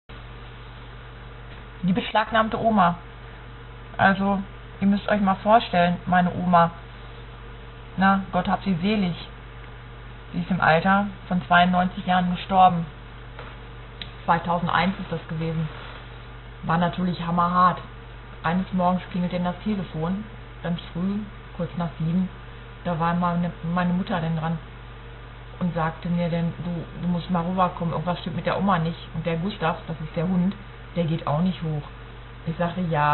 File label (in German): oma, frauen; Sprecherinnen, Weibliche